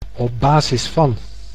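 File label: "op basis van", Dutch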